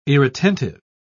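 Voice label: "irretentive", British English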